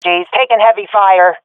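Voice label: pinned, swat female; vo; Counter Strike Model Pack; CS:GO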